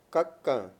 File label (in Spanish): "dulce", Mayo; Mundo moderno